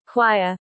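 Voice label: "choir", English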